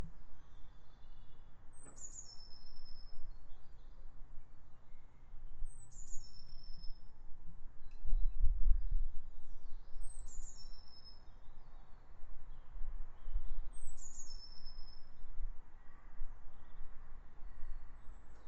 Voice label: tascam im2 description with birdsong and clock striking